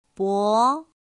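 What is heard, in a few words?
"bó", Mandarin Chinese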